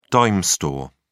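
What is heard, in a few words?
"dime store", British English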